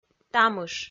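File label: "тамыш", Komi, female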